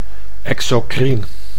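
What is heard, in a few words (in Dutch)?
"exocrien", Dutch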